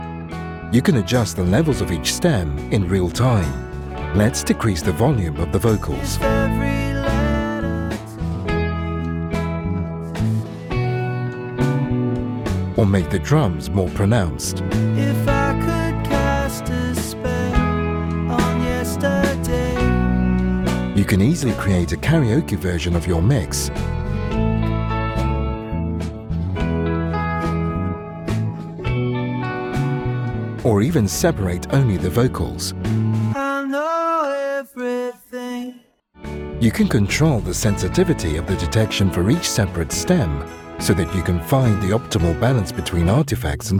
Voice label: english british explainer videos; african english